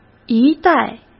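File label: "一带", Mandarin Chinese; hsk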